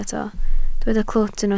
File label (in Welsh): "clwt", Welsh